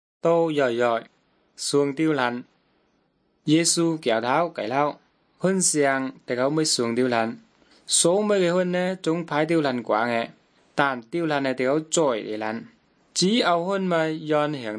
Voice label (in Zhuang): good news zhuang nanning fushu